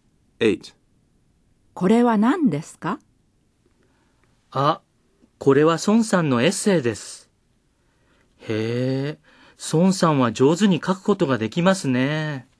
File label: Dialog